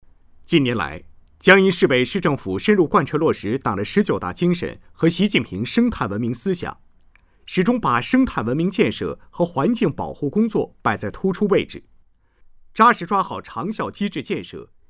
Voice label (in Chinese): 环保攻坚战宣传片配音